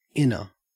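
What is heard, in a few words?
"inner", Australian English; pronunciations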